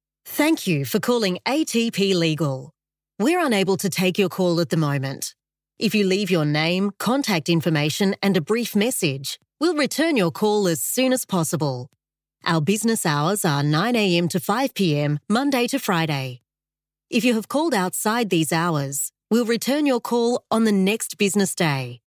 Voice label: Legal Voicemail Greeting